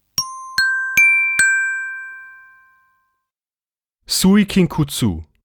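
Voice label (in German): "Suikinkutsu", Deutsch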